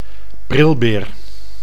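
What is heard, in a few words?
"brilbeer", Dutch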